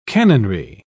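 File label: "canonry", British English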